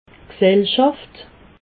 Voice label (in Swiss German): "gsellschaft", alsace